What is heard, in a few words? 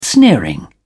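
"sneering", British English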